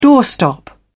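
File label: "doorstop", British English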